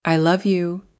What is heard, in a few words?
english, spoken language